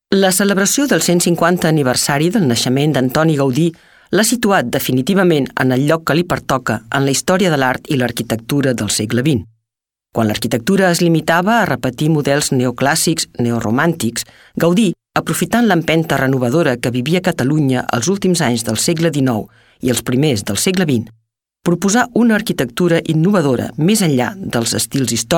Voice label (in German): Katalonien W